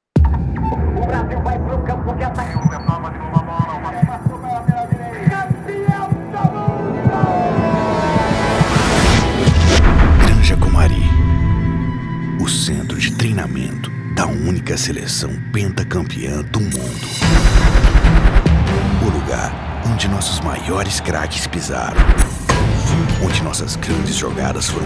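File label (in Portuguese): Épico Grave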